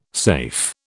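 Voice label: "safe", British English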